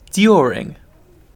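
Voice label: "during", British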